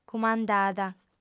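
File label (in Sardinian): "cumandada", female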